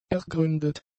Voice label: "ergründet", German; adjectives